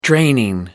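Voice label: "draining", British English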